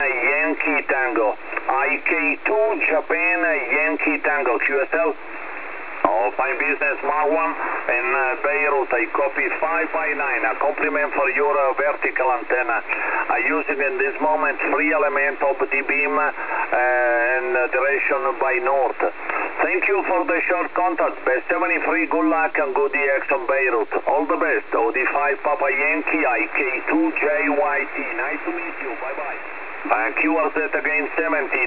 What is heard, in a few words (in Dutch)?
zendamateur USB Italy